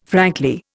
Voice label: "frankly", Indian